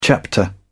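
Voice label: "chapter", British English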